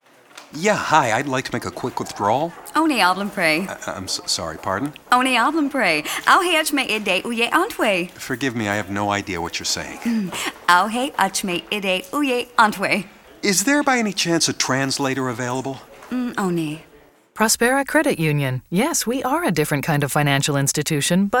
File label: pig latin